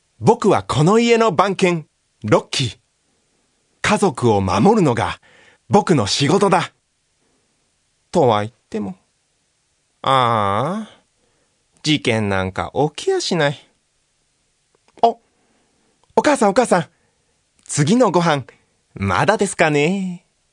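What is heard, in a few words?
Narration Kyara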